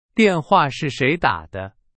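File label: Chinese; hsk2; 是。。。的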